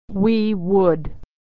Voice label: "we would", pronunciation